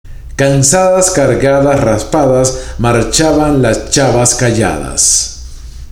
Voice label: CANSADAS LAS CHAVAS, spanish tongue twisters; 46 Spanish Tongue Twisters To Perfect Your Pronunciation